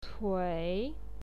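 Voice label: "cui", Mandarin Chinese, third tone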